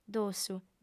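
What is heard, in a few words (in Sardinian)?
"dossu", female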